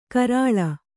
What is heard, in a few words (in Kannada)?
"ಕೆರಳ್", Kannada